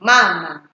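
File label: "mama", Nigerian Pidgin, female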